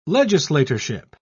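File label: "legislatorship", British English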